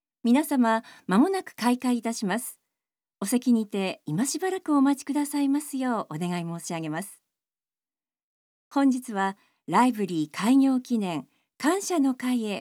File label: Narration